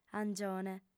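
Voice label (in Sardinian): "angione", female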